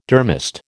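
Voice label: "durmast", American English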